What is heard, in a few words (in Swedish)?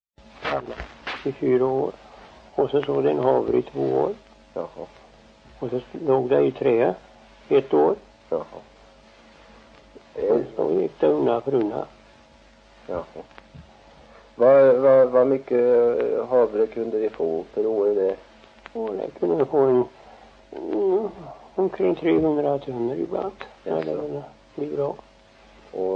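Sillerud Värmland, dialekter; Folkminnen; Svenska sagor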